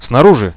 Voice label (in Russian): "Snaruzhi", Russian, radio